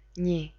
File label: "nni", es; tdeedu; klettres